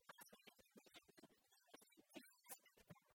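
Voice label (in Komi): рытнас ми ветлім видзӧдны кимӧститчысьяс спектак, Komi, female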